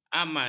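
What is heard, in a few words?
"aman", Malay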